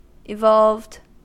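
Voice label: "evolved", American English; pronunciations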